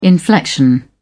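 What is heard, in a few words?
"inflection", British English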